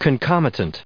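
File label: "concomitant", US English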